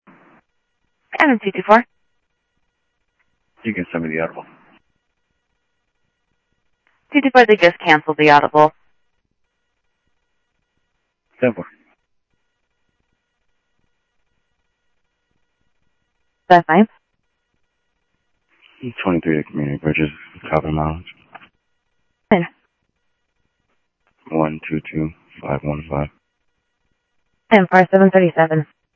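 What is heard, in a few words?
Sheriff West County, scanner audio trunk; MCSO - WEST; ThompsonPeakScot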